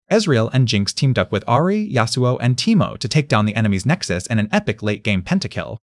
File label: test english